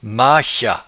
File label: "machat", patois jura